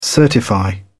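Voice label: "certify", British English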